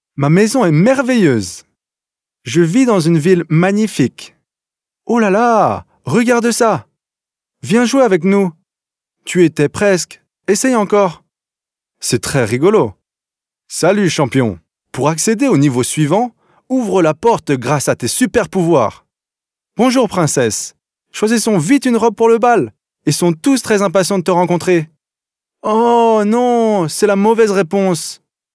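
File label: French male children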